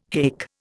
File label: "haik", Indian